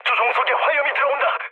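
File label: Korean on fire mood low; War Thunder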